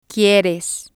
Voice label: "quires", Spanish

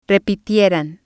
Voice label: "repitieran", spanish

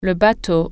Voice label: boat, french

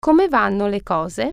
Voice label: how are things, italian

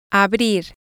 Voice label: "abrir", spanish

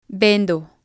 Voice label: "vendo", Spanish

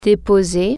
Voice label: deposit, french

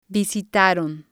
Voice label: "visitaron", Spanish

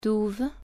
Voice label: moat, french

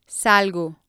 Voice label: "salgo", spanish

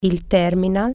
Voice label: terminal, italian

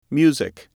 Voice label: "music", English

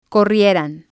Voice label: "corrieran", Spanish

